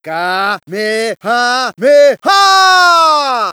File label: kamehameha br; cstrike; shmod; goku